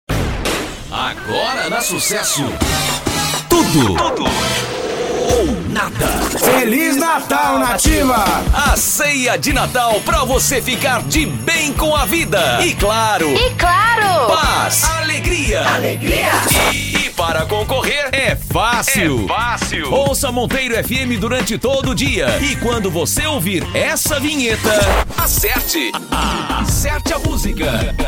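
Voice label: Portuguese General; GoLocalise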